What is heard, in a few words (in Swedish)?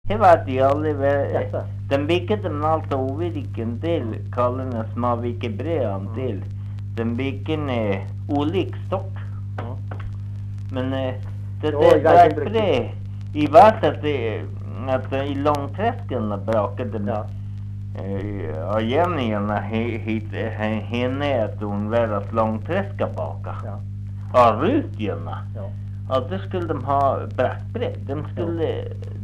Norsjö, dialekter; Folkminnen; Svenska sagor